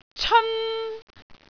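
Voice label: "ceon1", Cantonese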